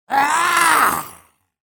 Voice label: chatter negative; sorlag; respawn